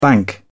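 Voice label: "bank", British English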